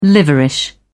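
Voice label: "liverish", British English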